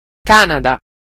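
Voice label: "canada", Italian; gcompris-data; geography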